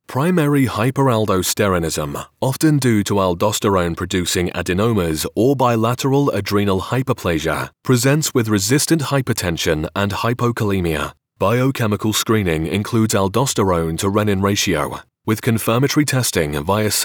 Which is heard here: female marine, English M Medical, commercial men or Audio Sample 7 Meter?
English M Medical